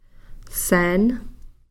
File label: "seen-", skin